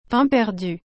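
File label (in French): "pain perdu", French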